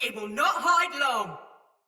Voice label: Sight Lost Shout Soldier Mace Agent Female

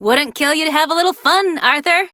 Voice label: Idle Barks, en; Aoi

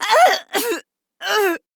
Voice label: Death Female; Warframe; Modular Corpus Female; Battle Barks; en

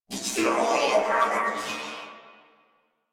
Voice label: Death Crewmen, Battle Barks; Dialog; Warframe; Corpus Crewmen; Crewman C

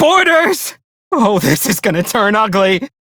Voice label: Enemy Spot, Male; Dialog; Warframe; Railjack Crew; Perrin Sequence

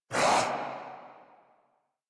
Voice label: Threatened Corrupted Grineer Male

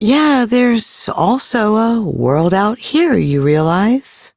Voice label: Ambient Female, en; Duviri

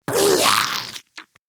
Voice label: Attack Effort Ghoul, en; Grineer Ghoul Expired; Battle Barks

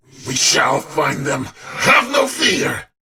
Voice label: Patrol, en; Warframe; Battle; Dax; DaxKnight

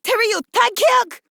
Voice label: Player Spotted, Female; en; Modular Corpus; Battle Barks

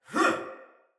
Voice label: Attack Soldier Male; Sinecure Soldier Male A

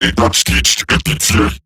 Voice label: Attack Robot Large; Battle; Eximus; Eximus Corpus Robotic Large; en

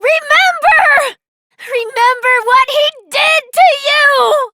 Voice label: Operator Voice, en; Warframe; New War

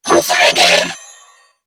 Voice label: Player Spotted Grineer Female; New War; Narmer

